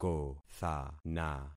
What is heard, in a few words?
"kothana", concat syllables; Affixing experiment